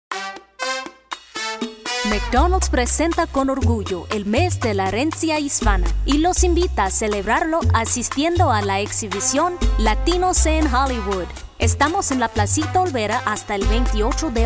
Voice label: Spanish